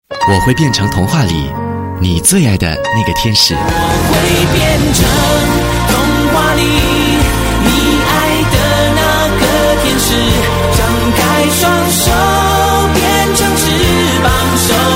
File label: Mandarin, Male; China